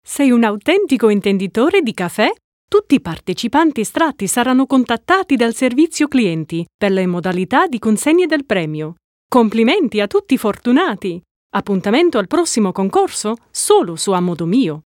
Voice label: italian